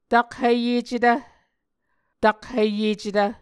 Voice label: "takhiyecetv", Muscogee